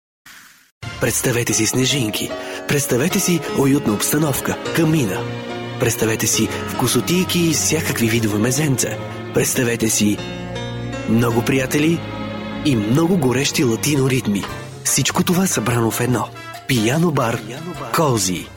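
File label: Bulgarian, Commercial; GoLocalise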